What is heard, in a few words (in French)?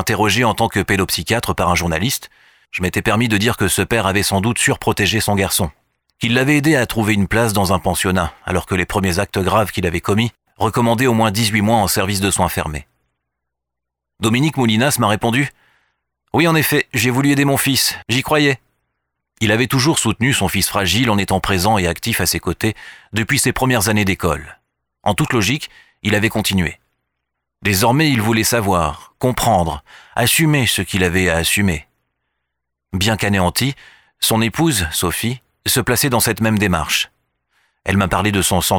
Narration Voix pour Audiobook; Affaire Agnès Marin